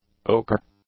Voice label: "ochre", english; adjectives

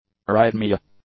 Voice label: "arrhythmia", English; nouns

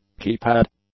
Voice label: "keypad", English; nouns

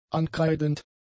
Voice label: "ankreidend", German; adjectives

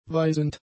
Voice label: "weisend", German; adjectives

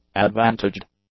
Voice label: "advantaged", English; adjectives

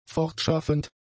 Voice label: "fortschaffend", German; adjectives

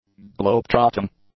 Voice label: "globe-trotting", english; adjectives

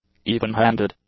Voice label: "evenhanded", English; adjectives

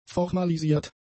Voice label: "formalisiert", German; adjectives

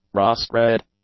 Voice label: "rust-red", English; adjectives

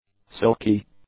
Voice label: "silky", English; adjectives

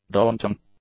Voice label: "daunting", english; adjectives

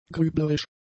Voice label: "grüblerisch", German; adjectives

